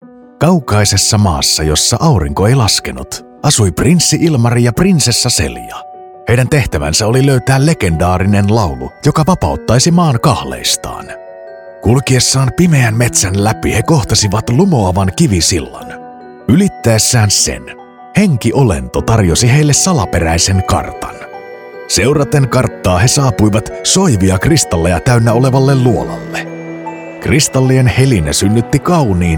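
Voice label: Finnish, Audiobook; voice demos; GoLocalise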